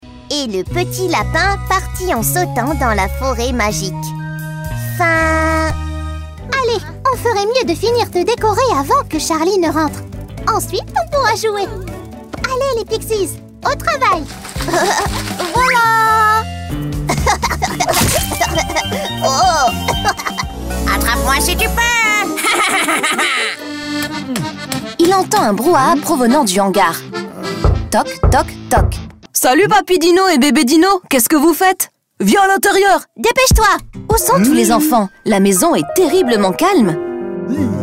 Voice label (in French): French Character